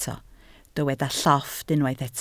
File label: "llofft", Welsh